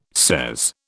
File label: "sez", British